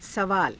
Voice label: "savaara", Hindi

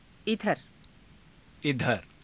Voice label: "idhara", Hindi

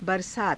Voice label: "barasaata", hindi

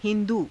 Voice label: "hi^duu", Hindi

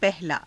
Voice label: "pahalaa", Hindi

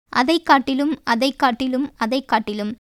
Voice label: Tamil

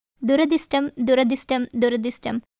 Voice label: Tamil

